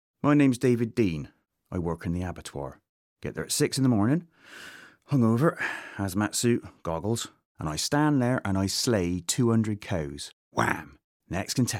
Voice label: Audiobook, 30s-50s. Male. Bristol.; Narration